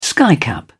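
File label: "skycap", British English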